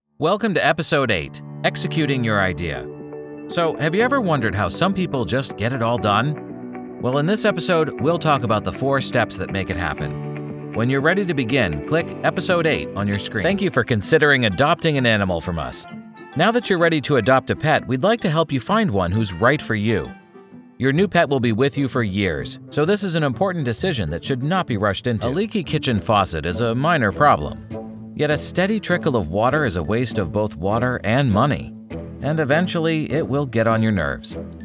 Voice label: eLearning